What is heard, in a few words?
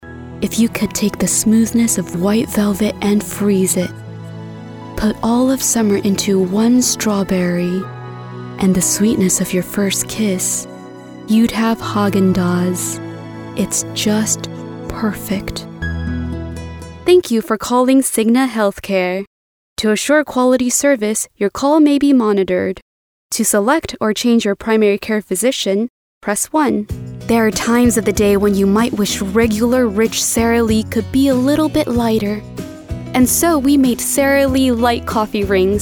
Commercial